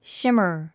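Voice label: "shimmer", English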